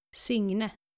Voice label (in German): "signe", skandinavische maedchennamen